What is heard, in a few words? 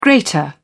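"greater", British English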